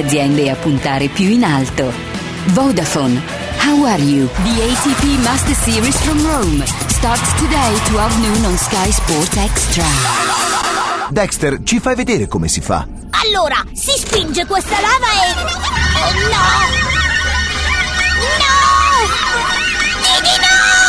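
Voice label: italian female voice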